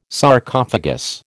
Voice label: "Sarcophagus", American